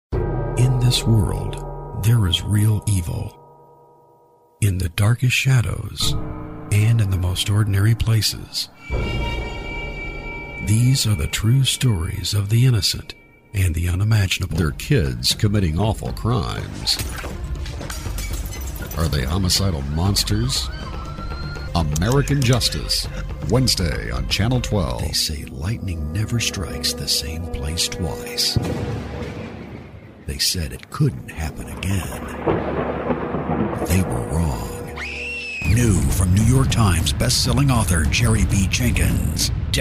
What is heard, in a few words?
Promo Trailer